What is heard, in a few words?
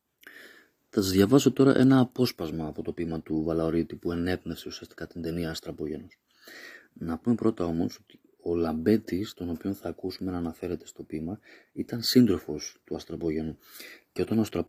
podcast audio